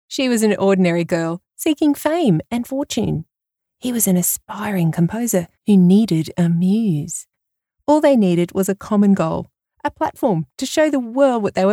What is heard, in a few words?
Movie Trailer